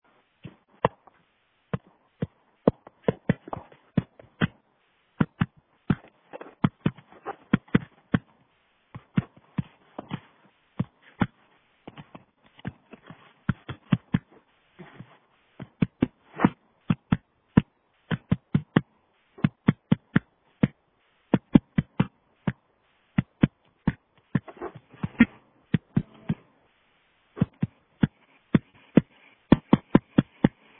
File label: voicemail; confirming precog